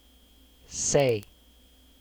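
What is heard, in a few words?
"say", English syllable; kdeedu; klettres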